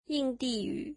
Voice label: Hindi, mandarin